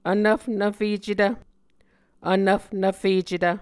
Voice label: "vnvfnvfecetv", muscogee

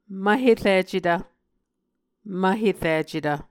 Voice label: "mvhericetv", Muscogee

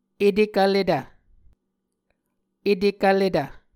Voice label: "etekvletv", Muscogee

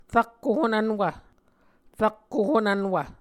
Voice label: "rakko honvnwv", Muscogee

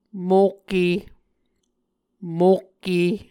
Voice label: "mokke", Muscogee; Mvskoke Words with Repeated Consonants; A Dictionary of Creek/Muskogee; SIL International

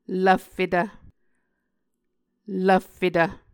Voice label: "lvffetv", Muscogee; Mvskoke Words with Repeated Consonants; Martin, Jack B., and Margaret McKane Mauldin. 2023. A Dictionary of Creek/Muskogee

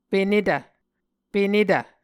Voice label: "penetv", Muscogee

